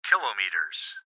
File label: Gps Voice, Male; Kilometers; Recorded Voice